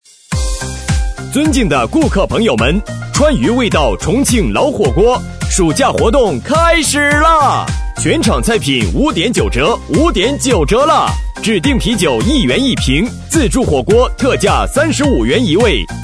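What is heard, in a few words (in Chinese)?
男声老火锅; 重庆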